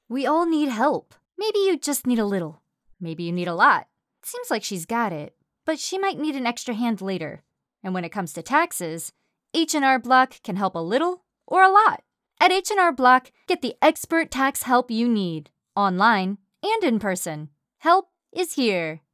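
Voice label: Commercial Conversational Friendly, Female; American English voice-over artist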